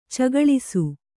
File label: "ಚಗಳಿಸು", Kannada